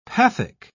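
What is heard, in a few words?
"pathic", British English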